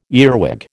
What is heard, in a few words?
"earwig", American